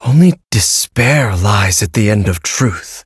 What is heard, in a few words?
levelup, en; cookierun